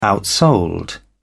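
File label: "outsold", British English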